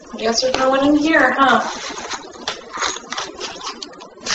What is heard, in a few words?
speaking then voice saying i want; Morrison Lodge 76; EVPS